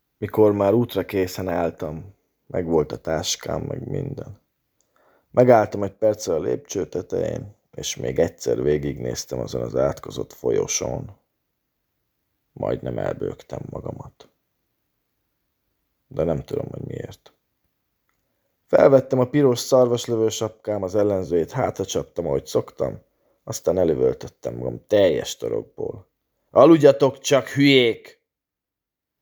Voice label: language hungarian